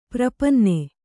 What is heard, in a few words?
"ಪ್ರಪನ್ನೆ", Kannada